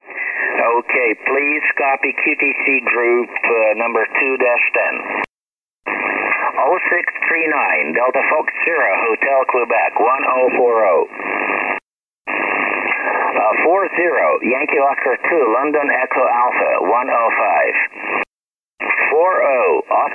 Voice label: ssb, beginner; Training QTCs; QTC list